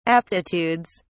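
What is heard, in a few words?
"aptitudes", British English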